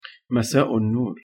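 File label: "Masau An Nur", Arabic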